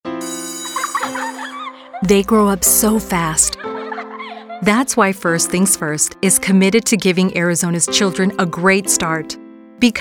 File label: Radio English